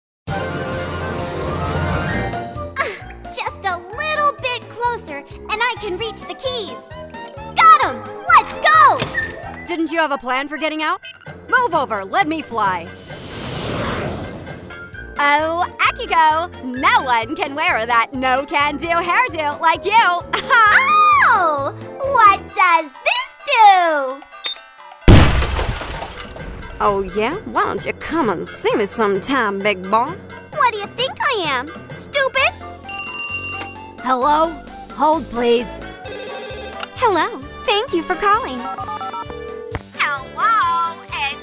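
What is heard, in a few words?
Character, USA, female; narrator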